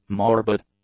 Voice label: "morbid", English; adjectives